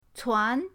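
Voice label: "cuan2", Chinese; pinyindct-open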